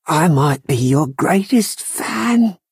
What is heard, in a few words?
Adoring fan last gasp